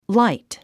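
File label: "light", English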